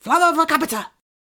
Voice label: "flamma vocabitur" rushed, incantations older female; fire spells; psfx